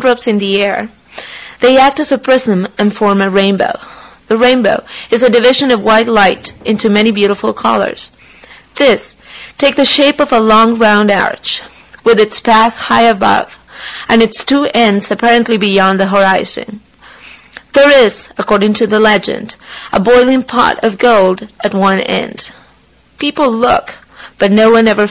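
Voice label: argentina, dialects of English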